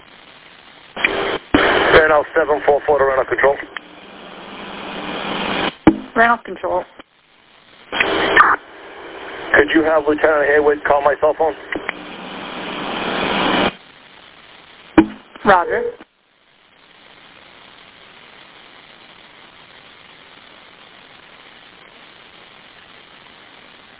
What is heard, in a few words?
Police Radio